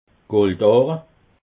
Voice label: "goldahre", alsace

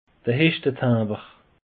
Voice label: "hechtetimbre", alsace; peche

